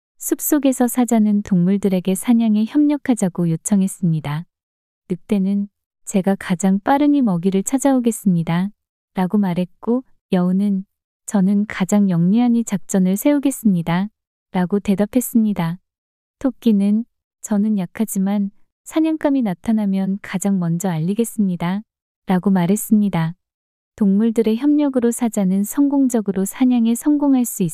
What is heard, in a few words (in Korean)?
이야기 여자; 사자와 동물들의 협력